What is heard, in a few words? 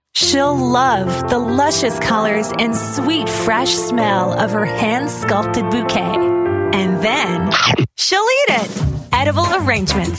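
female English Canada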